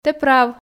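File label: "тэ прав", Komi, female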